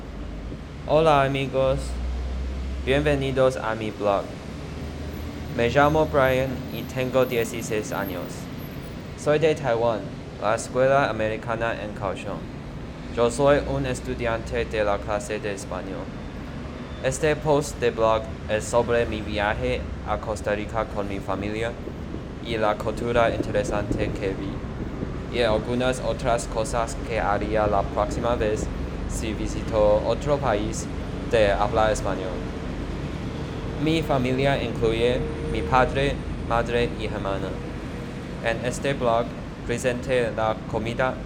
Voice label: spanish audio